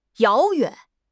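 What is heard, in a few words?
"遥远", Mandarin Chinese; HSK6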